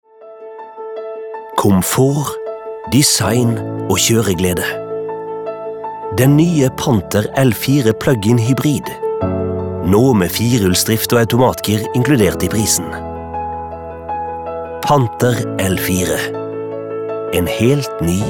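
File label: Norwegian soft, male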